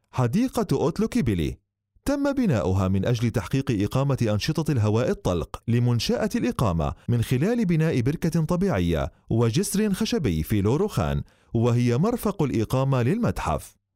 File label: arabic